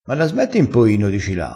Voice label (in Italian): "cila", crespinese